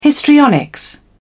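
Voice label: "histrionics", British English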